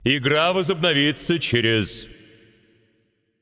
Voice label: Announcer Russian Global Game Resuming

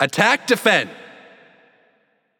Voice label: Announcer Game Mode Attack Defend; Paladins

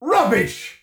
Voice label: Stunned Or Frozen; BombKing; Pumpking; paladins

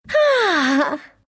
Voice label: happy, ru; Dota 2

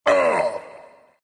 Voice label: pain, ru; dota2

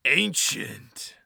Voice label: Announcer Lobby Card Ancient

